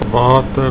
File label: "water", Afrikaans